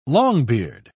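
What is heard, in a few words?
"longbeard", British English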